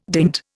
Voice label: "dint", Indian

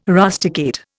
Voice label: "rusticate", Indian

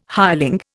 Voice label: "hireling", Indian

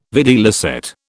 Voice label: "videlicet", British

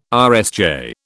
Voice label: "RSJ", British

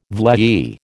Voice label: "vlei", American

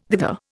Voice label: "thither", Indian

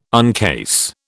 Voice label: "uncase", British

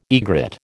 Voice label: "egret", American

